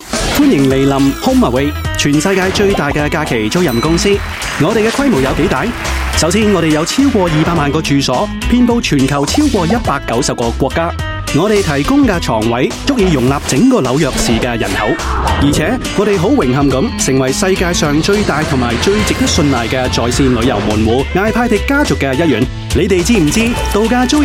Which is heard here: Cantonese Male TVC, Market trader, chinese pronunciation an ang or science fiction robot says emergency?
Cantonese Male TVC